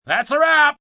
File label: Thats A Wrap, Film, movie, direction, assistant director, male 'That's a wrap', (human voice speaking); Talking